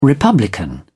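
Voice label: "republican", British English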